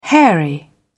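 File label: "hairy", British English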